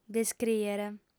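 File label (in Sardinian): "descrìere", female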